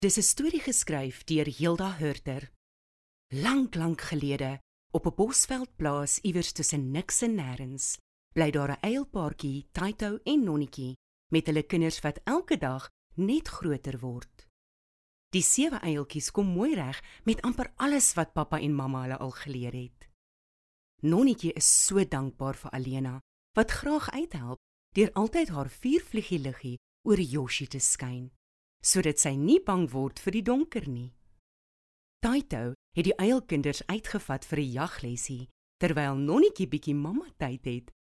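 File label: Storyteller South African Neutral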